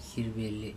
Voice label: "Sirbelle", andamanese; waist band

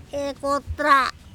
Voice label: "ekotra", andamanese

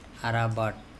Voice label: arabaT towards the feet, andamanese

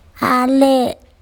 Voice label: "ale", andamanese; lightening

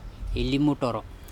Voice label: onge ilimuTOrO, Andamanese

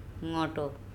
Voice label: "ngOTo" swim, andamanese

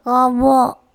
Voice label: skin, kobo

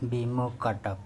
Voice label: "bemokatap" nightingale, andamanese

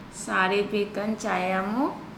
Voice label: "sare bekan cayamo Noe", andamanese

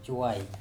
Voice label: "coai", andamanese

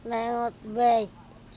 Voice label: "mEngot bec", andamanese

